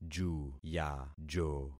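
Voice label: "juyajo", concatenated syllables; Affixing experiment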